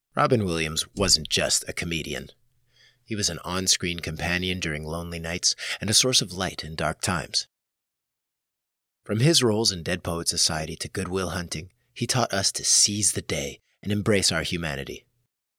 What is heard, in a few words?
narration; Robin Williams